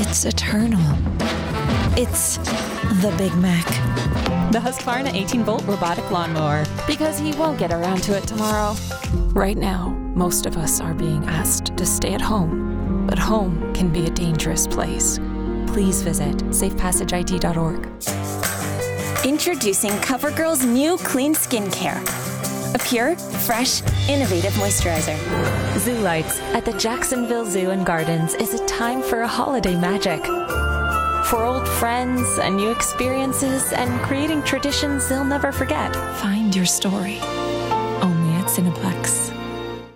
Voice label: Commercial

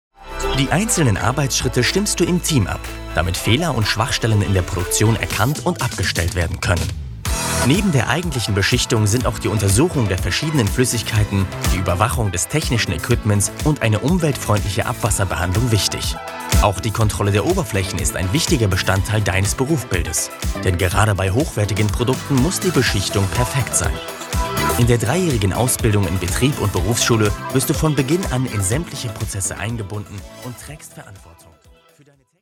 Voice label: Recruiting Video; ZVO

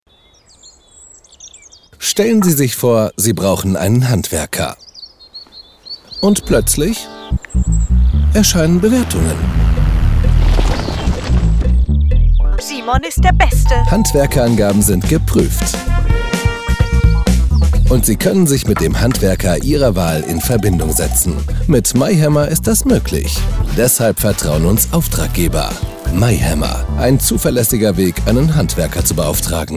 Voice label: Commercial; MyHammer

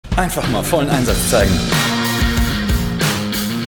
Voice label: Werbung, cool, casual, manly, Commercial, German; Knoppers (Role: Fireman)

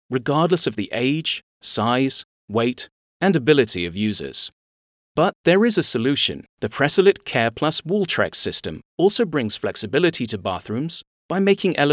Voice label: imagefilm; Pressalit

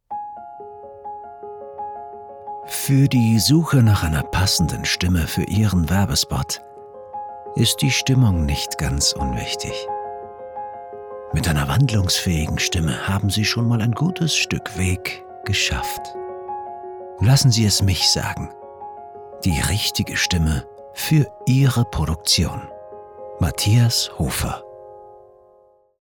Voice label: Werbung dreamy

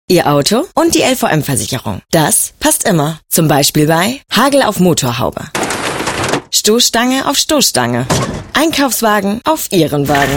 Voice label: Radio Commercial; LVM Versicherung

